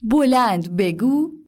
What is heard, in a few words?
speak loudly